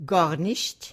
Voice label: "gornisht", Yiddish